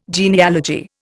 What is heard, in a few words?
"genealogy", Indian